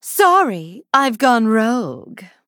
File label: Female Blood Elf Emote